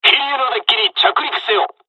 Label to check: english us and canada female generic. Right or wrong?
Wrong